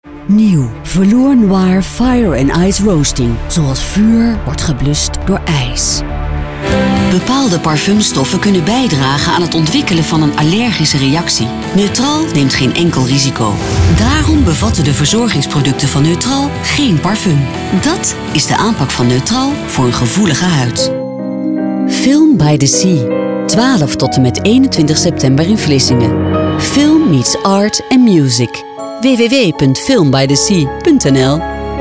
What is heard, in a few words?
Dutch warm, female